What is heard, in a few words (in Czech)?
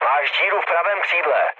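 Czech right wing, mood low; speech; War Thunder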